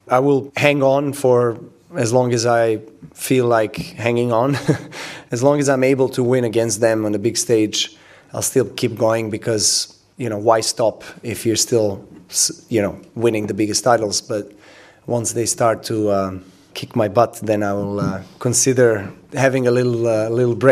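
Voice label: English; Novak Djokovic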